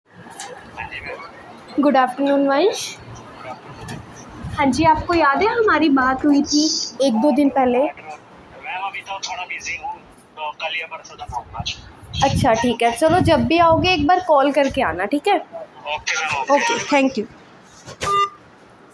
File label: call recording